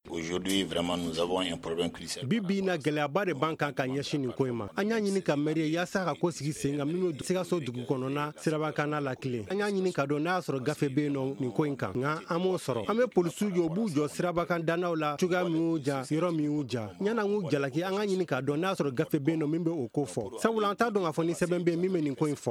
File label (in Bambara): magazine bamanankan